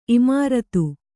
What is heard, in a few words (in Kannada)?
"ಇಮಾರತಿ", Kannada